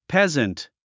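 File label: "peasant", English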